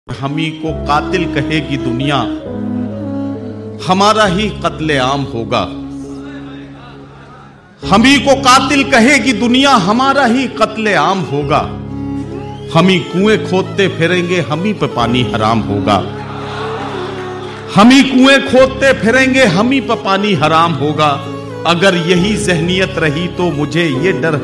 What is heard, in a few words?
Shayari Ringtone